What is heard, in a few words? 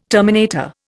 "terminator", Indian